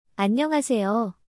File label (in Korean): "안녕하세요", Korean; アンニョンハセヨ